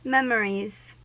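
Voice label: "memories", American English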